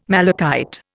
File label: "malachite", Indian